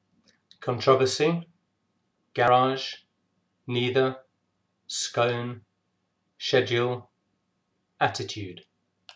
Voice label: Wilmslow UK male